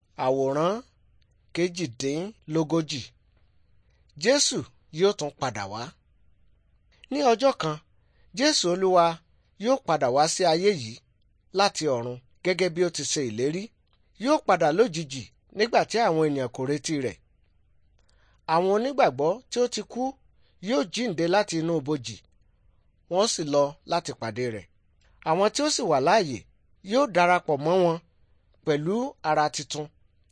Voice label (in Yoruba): good news yoruba